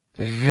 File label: Phoneme Male; Commonscript; Lynguistykon